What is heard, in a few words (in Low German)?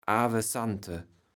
"avesante", kaevels platt